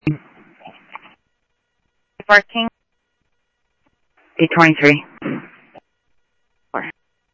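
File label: Sheriff West County, scanner audio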